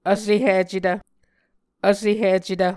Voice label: "vsehicetv", Muscogee

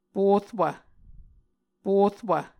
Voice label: "porwv", Muscogee

